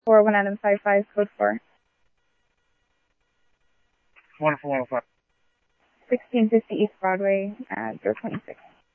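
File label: Mesa Simulcast Police Department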